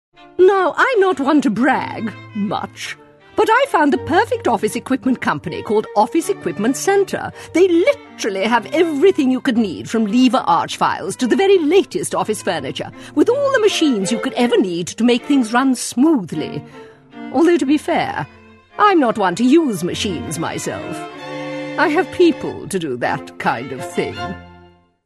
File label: posh, readings